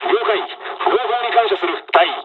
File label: dialog, dialogs japanese; War Thunder